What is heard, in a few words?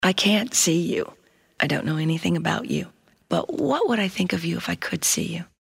anti announcer